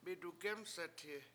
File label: "midugEmset7hi", washo; I help you